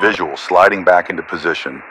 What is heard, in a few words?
Radio pilot Wingman Rejoin